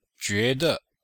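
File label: "jue de", Chinese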